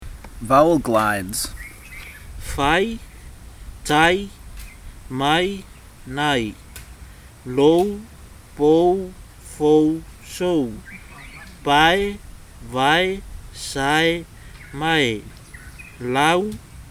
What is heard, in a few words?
the samoan alphabet, pronounciation, vowel glides